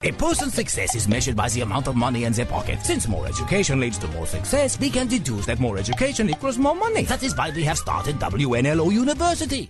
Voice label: German